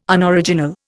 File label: "Unoriginal", Indian